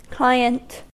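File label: "client", American English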